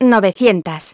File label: "nine hundred", feminine; iOS 4